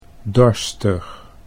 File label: "dorstig", Dutch; 'thirsty'